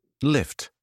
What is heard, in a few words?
"lift", British English